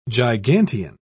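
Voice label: "gigantean", British English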